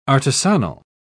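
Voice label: "artisanal", English, British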